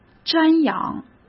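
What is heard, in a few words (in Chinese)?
"瞻仰", Mandarin; chinese-flashcards; hsk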